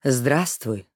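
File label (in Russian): "Здравствуй", Russian; Симметра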